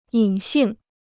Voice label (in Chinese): "隐性", Chinese